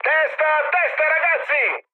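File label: Italian near waypoint mood high, speech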